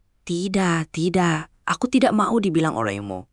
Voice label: indonesian phrases

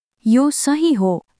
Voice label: Nepali, basic phrases

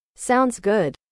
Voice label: english

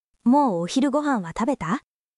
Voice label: japan

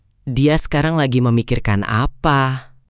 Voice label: indonesian phrases

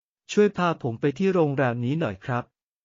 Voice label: Thai, basic Thai phrases; タイ旅行で必須の「伝える力」を磨く！カタカナ発音で覚える基本の100フレーズ

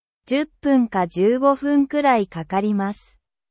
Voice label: japan

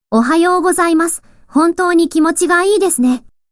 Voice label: anime, japanese; Japanese greetings with anime characters; slightly amusing Japanese language lesson

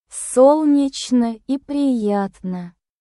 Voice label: russian conversational phrases; ロシア語; 日常フレーズ; must know russian conversational phrases

